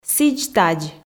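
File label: "сідз-тадз" Komi, female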